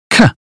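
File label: "cat", British phonetics sound; 英语音标发音（剑桥版）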